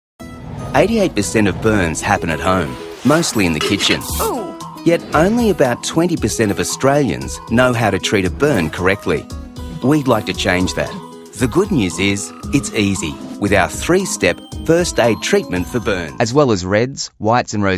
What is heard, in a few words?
corporate; EM Voices